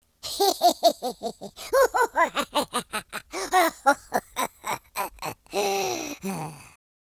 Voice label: happy, speech; Confucious